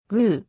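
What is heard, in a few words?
"ぐ", Japanese; 基礎日語五十音聽力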